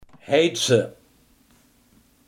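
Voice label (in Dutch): heythuysen, Limburgse gesproken woordenboeken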